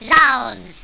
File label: zounds, squeaky